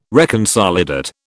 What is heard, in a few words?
"reconsolidate", British